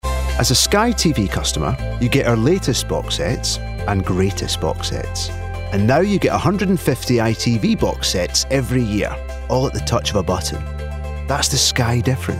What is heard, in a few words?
commercial; Sky